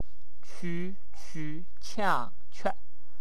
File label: "tch", Wu Chinese